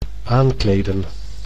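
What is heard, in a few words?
"aankleden", Dutch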